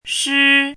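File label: "shi1" Mandarin Chinese, high level tone; chinese-voice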